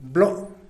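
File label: "blo" crow, Zapotec; animals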